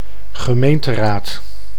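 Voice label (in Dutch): "gemeenteraad", Dutch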